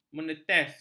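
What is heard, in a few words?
"menetes", Malay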